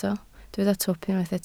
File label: "twp", Welsh